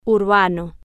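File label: "urbano", Spanish, female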